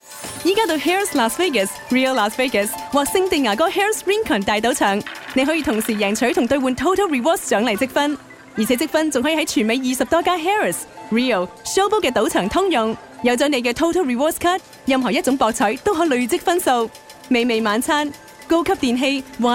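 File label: Chinese Cantonese commercial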